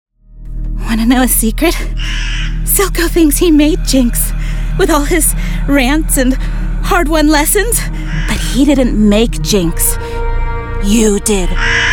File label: Animation